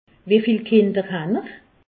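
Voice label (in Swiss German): "kinder", Alsatian; medecine; vous avez combien d'enfants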